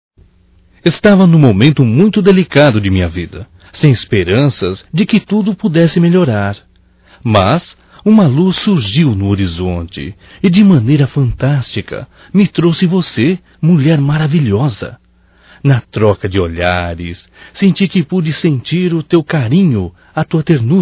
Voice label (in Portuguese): Romântico Masculino, Telemensagens Românticas